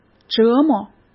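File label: "折磨" Mandarin Chinese; hsk; chinese-flashcards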